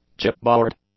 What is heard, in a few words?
"chipboard", English; nouns